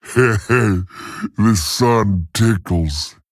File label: Male Tauren MIRROR GREETINGS